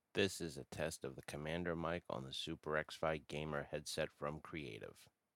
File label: mic test; Commander